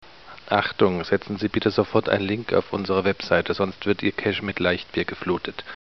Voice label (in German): werbung; Hoepfer Werbespot - Link